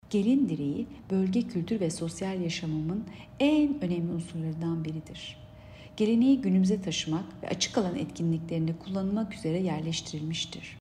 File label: turkce